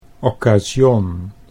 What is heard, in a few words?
"occasion", Dutch